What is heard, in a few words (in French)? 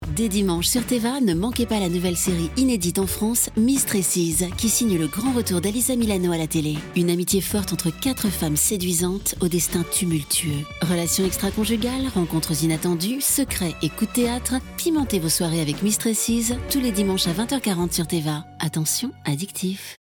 spot radio; Teva; Mistresses